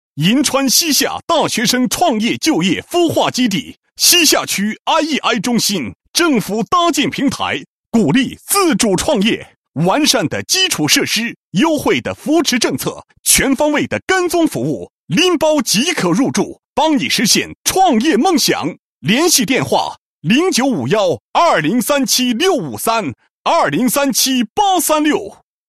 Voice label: 男 品牌, 国语男声配音; 西夏区

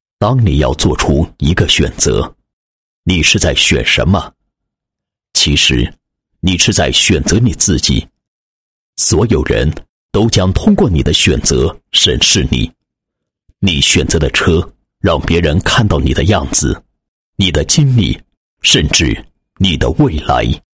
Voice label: 男 汽车广告, 配音; 上汽大众4S店宣传

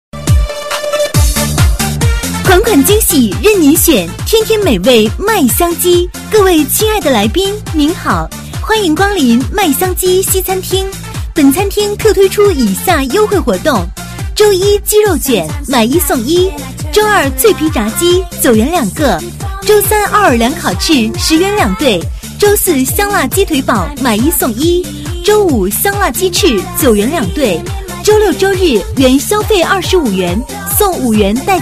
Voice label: 女 促销; 麦香基

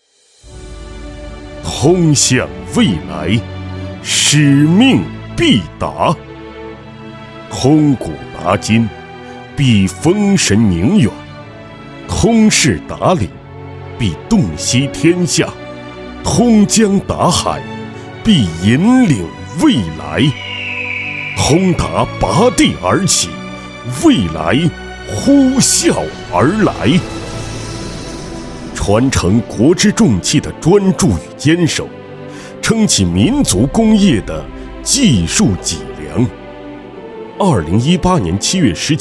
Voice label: 男, 专题, 企业, 恢弘大气

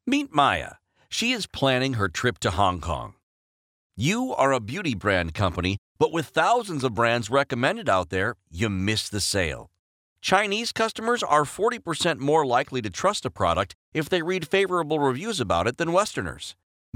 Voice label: 外籍英男 SEO网络推广 广告

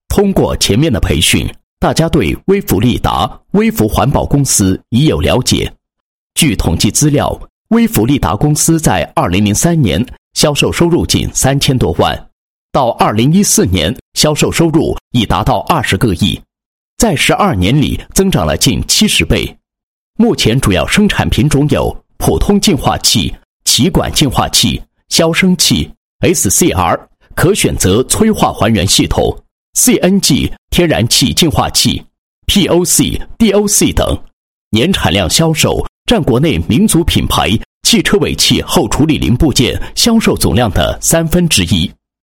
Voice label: 男 企业专题 新员工入职培训片

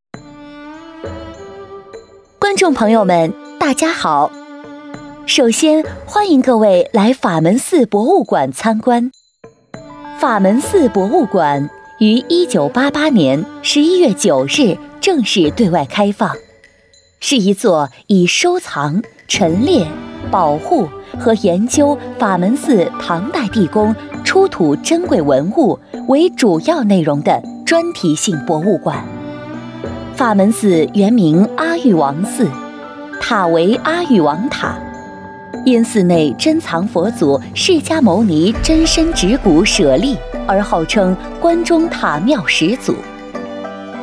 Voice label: 女 课件, 国语女声配音; 法门寺博物馆

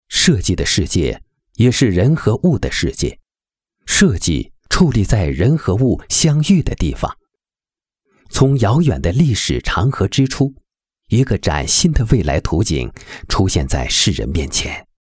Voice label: 设计, 国语男声配音; 设计样

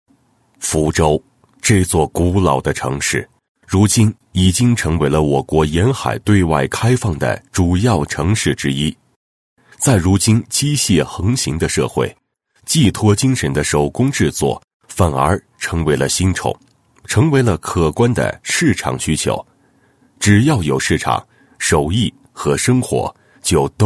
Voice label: 男 文化专题, 漆器与剪纸解说词; 福州

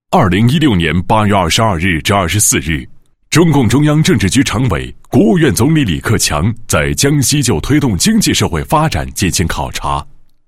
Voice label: 男 新闻; 瑞金市华屋 邮乐购 站点视察回访

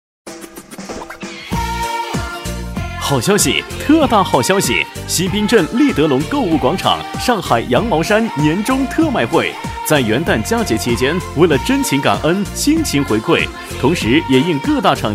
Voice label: 男 促销广告 好消息 羊毛衫

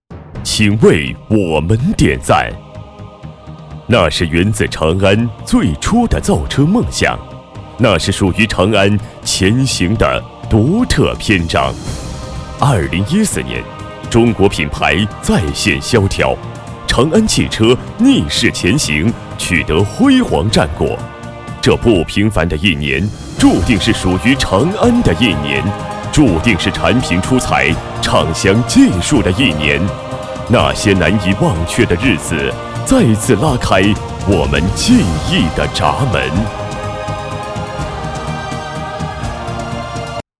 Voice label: 颁奖开场, 开场白主持; 奔跑吧长安研发人

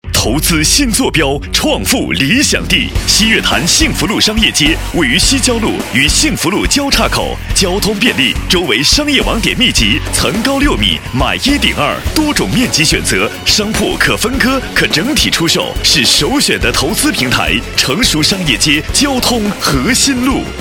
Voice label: 男 广告; 西月潭幸福路商业街

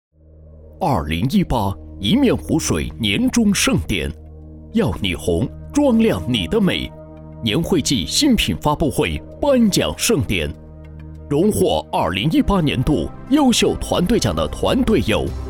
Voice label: 男 年会颁奖 催人奋进

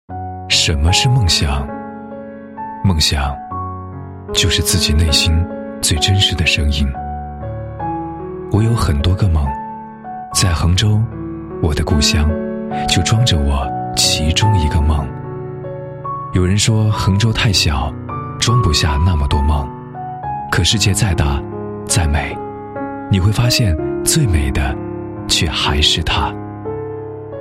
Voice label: 男-城市地区宣传; 横州形象宣传

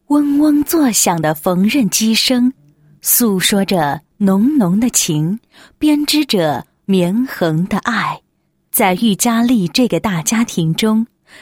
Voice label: 女, 企业, 企业文化宣传; 裕佳利企业文化宣传

